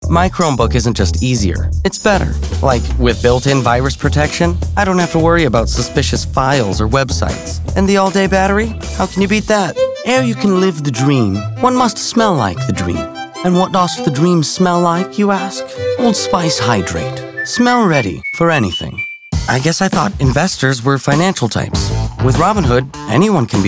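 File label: Commercial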